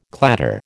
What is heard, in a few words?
"clatter", American